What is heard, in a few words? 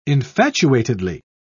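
"infatuatedly", British English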